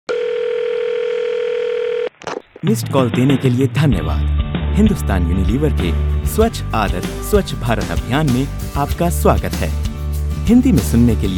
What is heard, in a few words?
Hindi IVR On hold Messages